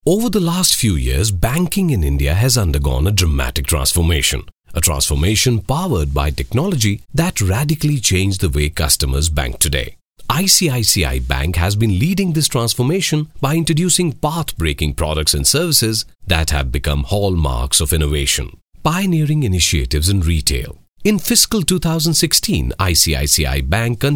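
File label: corporate, English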